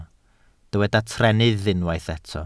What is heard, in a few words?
"trennydd", Welsh